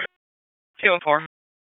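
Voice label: Police Northeast District Dispatch; Raleigh; wake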